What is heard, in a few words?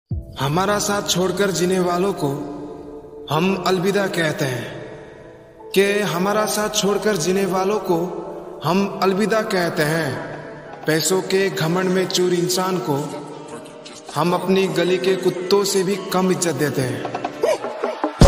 Boy Attitude Shayari Ringtone